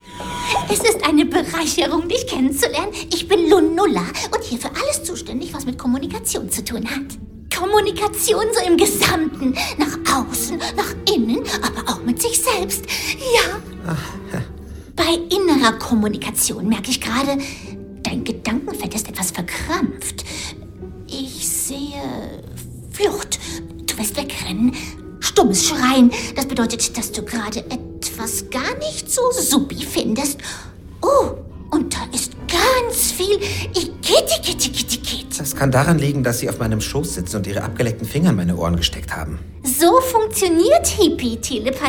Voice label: Hörbuch, frauen; Die Guten der Galaxis

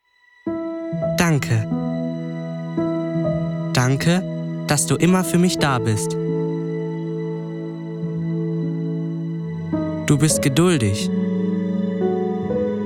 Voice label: Imagefilm, maenner; DMAX; Vatertag

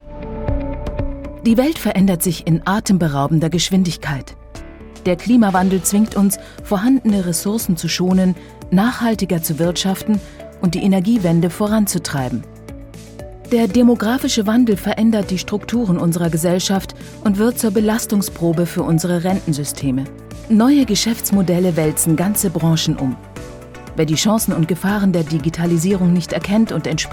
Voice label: Imagefilm; Bantleon

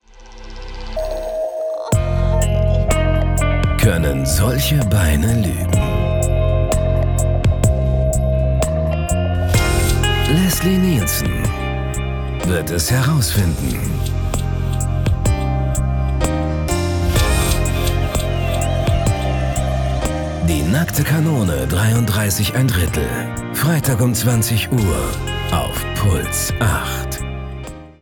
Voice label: Trailer, maenner; Die nackte Kanone

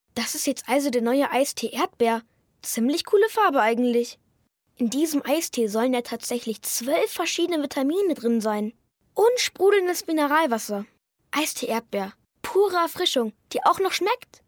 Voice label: jungen; Eistee

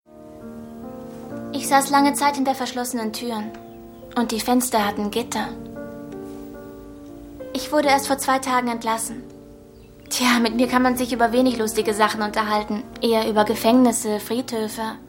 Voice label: Synchron, frauen

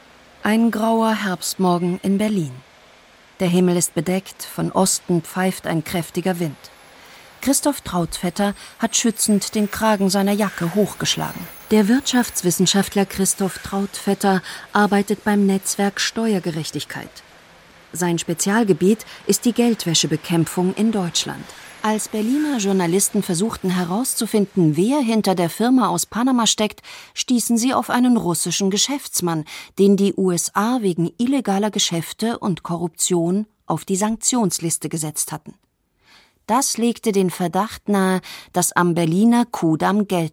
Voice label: Kommentar, frauen; SWR; Geldwäscheparadis DE

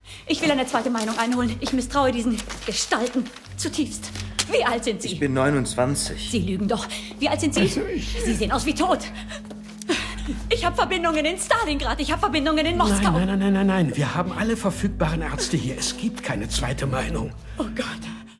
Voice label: Synchron, Frauen; The Death of Stalin

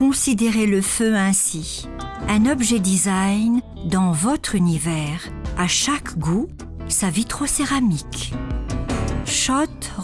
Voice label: Französischer Kommentar; Schott

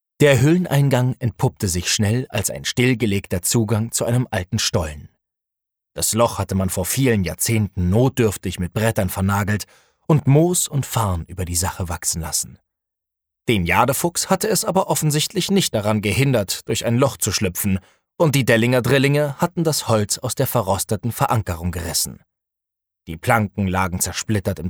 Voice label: Hoerbuch, maenner; Signs of Magic

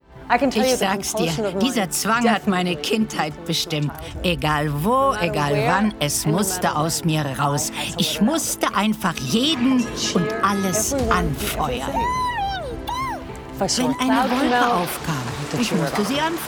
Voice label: Cheerleading, Voice Over, frauen; NFL

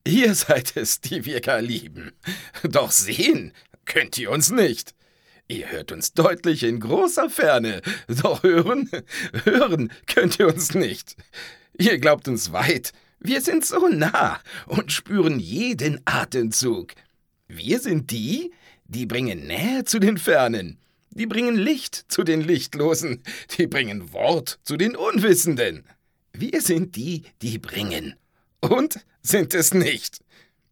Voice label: fröhlich

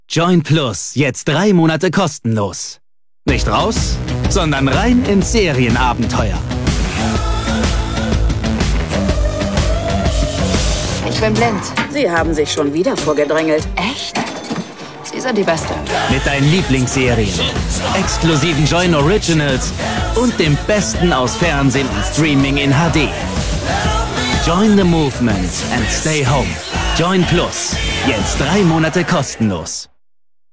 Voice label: Trailer, maenner; Joyn+; Corona Special

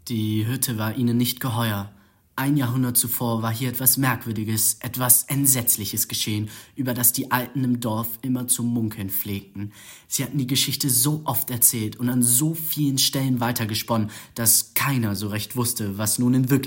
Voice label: jungen; Die Huette

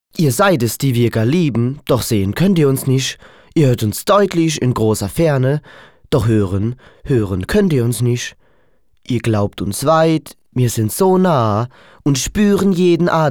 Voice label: Mannheimerisch, Dialekte

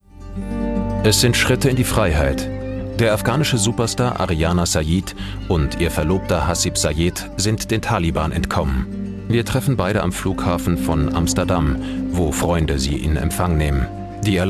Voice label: Kommentar, maenner; Zervakis&Opdenhövl

